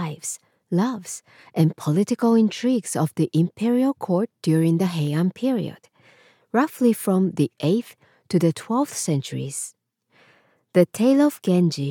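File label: Japanese accented English female museum guide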